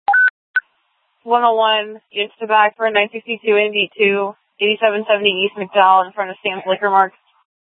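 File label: Scottsdale Police South Dispatch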